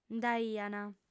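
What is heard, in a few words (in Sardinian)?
"nd'aiant", female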